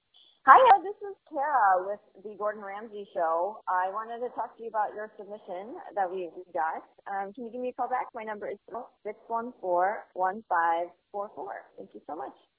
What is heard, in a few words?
voicemail